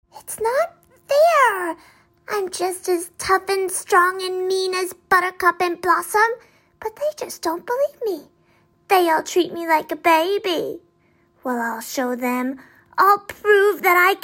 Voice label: voiceover; demo

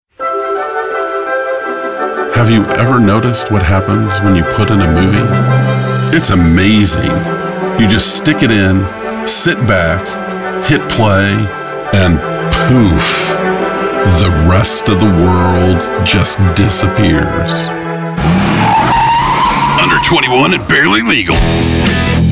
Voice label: voice actor; demo

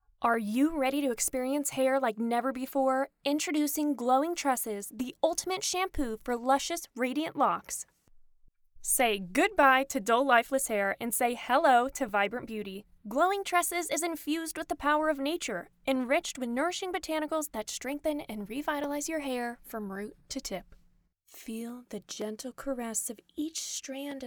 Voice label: commercial

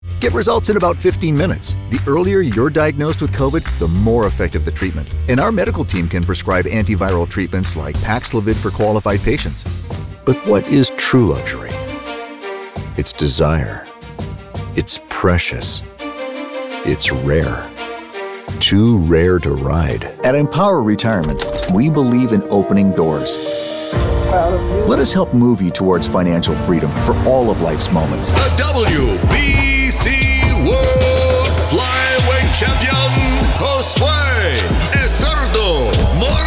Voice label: commercial; demo

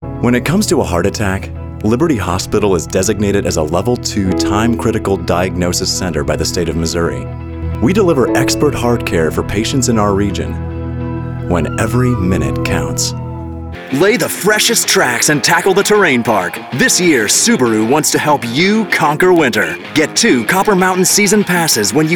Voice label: commercial VO; demo

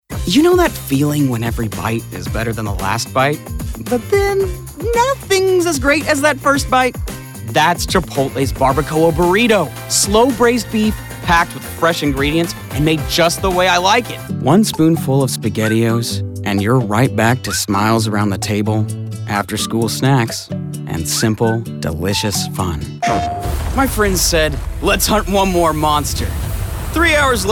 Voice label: commercial; demo

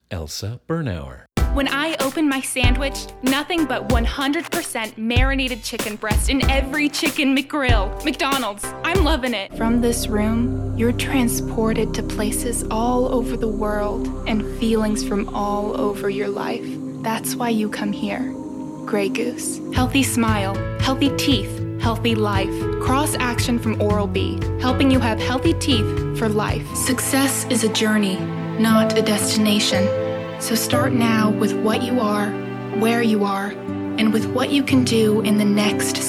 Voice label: voiceover; demo

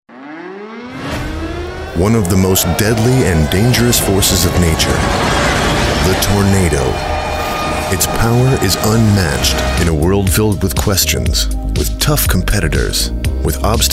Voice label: narration

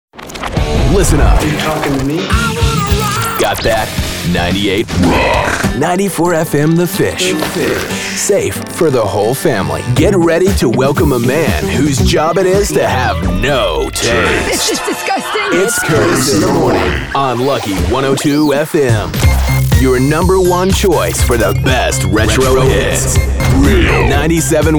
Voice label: radio imaging

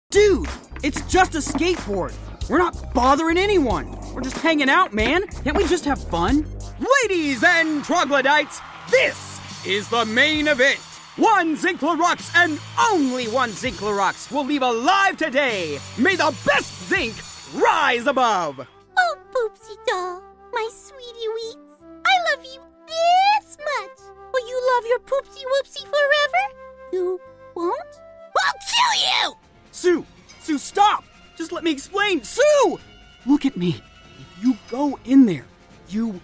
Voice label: character; demo

